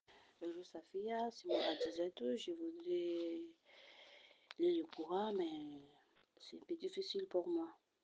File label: voice message